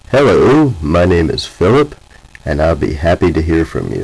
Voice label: English